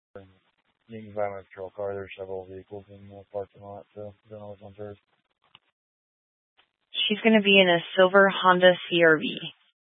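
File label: Police Dispatch, Scanner audio; Police 1 Dispatch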